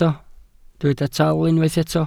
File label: "taal", Welsh